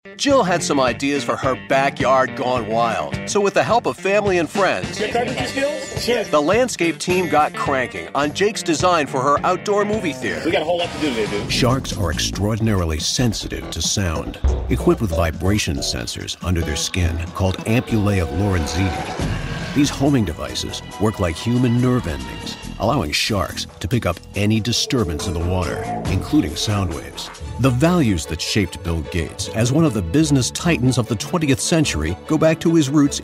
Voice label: Narration